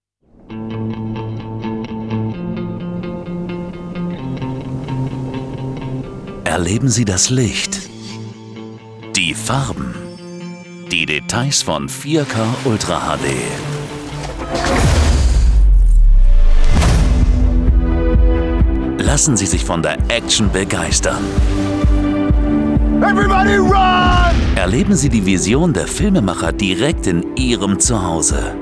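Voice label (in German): Werbung Cool; Fremdtake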